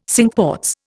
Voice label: "Cinque Ports", Indian